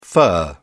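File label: "fir", British English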